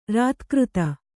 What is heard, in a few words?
"ರತಿಕಾತಿ", Kannada